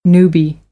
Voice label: "newbie", American English; uː - juː